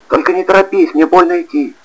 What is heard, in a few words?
let's hurry, hostage; cstrike